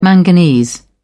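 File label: "manganese", British English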